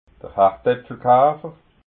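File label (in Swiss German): "hardapfelkafer", Alsatian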